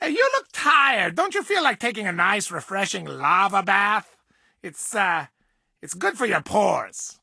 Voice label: English Voice

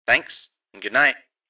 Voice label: Voice Mail

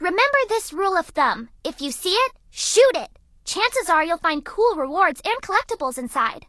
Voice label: English Voice

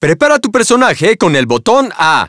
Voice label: Spanish - dialogue, Player Ready

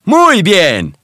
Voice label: "ok", Spanish

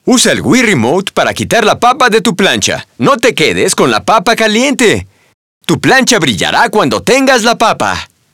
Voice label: hotpotato vo, Spanish; Birthday Party Bash; Wii

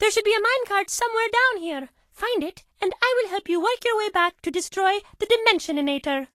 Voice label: English Voice

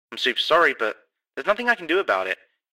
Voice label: Voice Mail

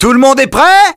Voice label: ok, French

